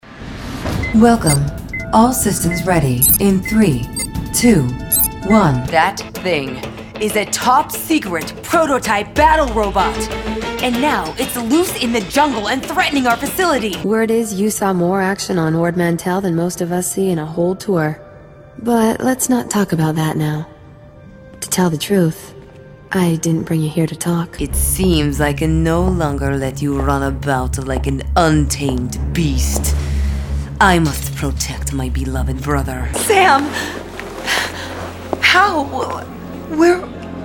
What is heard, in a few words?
women video games; Confident, textured, and sultry.